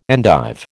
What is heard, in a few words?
"endive", American